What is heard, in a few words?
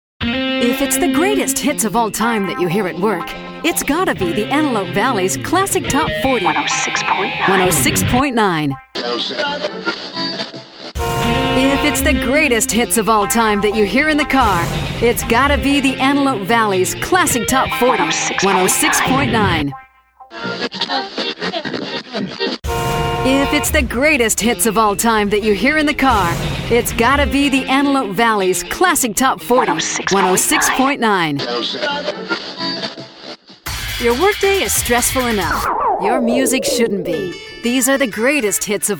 Radio Imaging